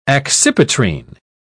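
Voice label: "accipitrine", British English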